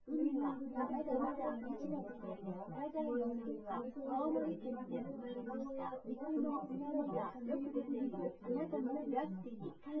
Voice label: female synthconv

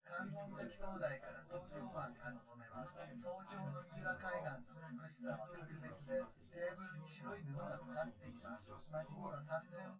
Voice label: male synth conv

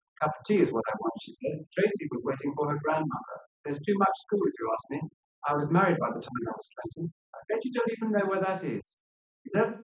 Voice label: male; SiSEC10; underdetermined

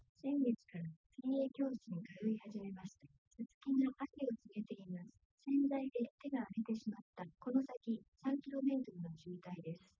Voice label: female liverec; SiSEC08; SiSEC underdetermined

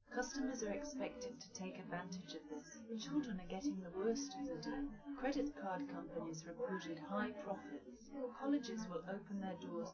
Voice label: female live rec; SiSEC10; underdetermined

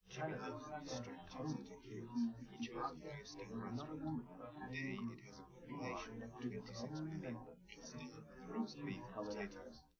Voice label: male live rec; SiSEC10; underdetermined

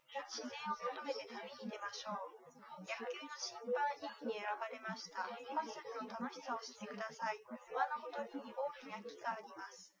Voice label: female liverec 250ms 5cm; SiSEC08; SiSEC underdetermined

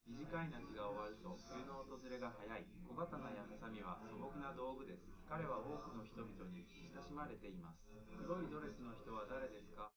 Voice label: male, live rec; SiSEC11; underdetermined

